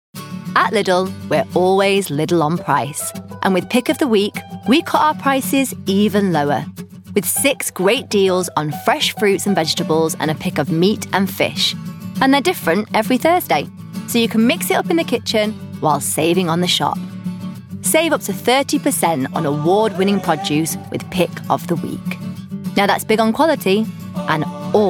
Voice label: Ad; Lidl